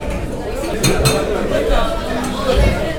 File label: fear, 居酒屋, Fear; 恐れ; 感情プロファイルマッチング環境音